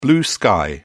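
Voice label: "blue sky", British English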